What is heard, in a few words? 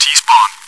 chitchat, bot, voice commands; svencoop